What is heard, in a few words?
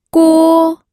"guo1", pinyin audio